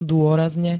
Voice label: "dôrazne", slovenské

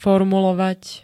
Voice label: "formulovať", slovenské

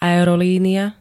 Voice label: "aerolínia", slovenské

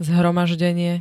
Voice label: "zhromaždenie", Slovenské

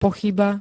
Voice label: "pochyba", Slovenské

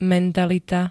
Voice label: "mentalita", slovenské; spôsob myslenia a zmýšľania

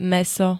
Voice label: "mäso", slovenské

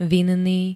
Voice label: "vinný", slovenské

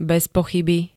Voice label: "bezpochyby", Slovenské

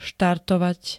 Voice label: "štartovať", slovenské

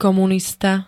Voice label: "komunista", slovenské

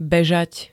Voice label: "bežať", slovenské

